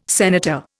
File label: "senator", Indian